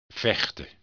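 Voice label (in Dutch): "vechten", Dutch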